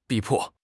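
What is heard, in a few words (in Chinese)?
"逼迫", Chinese; HSK6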